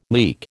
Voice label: "leek", American

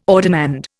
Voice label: "ordinand", Indian

